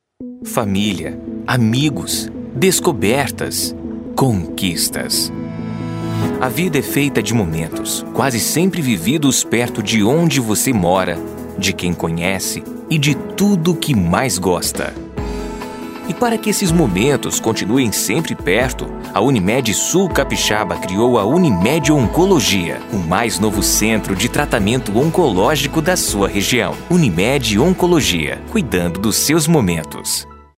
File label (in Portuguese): SUAVE PADRAO